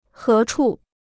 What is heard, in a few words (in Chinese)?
"何处", Chinese